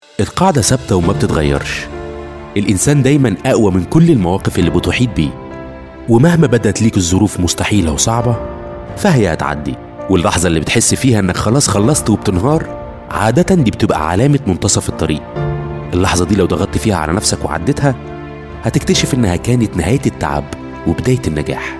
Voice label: arabic voice over male narrator